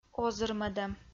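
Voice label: "озырмӧдӧм", Komi, female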